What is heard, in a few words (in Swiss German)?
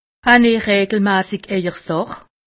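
"sach", Haut-Rhin; medecine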